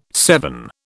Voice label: "seven", British